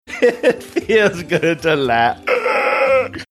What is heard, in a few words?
laughing saying it feels good to fake gasping for air